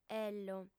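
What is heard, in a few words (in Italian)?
"ello", lingua sarda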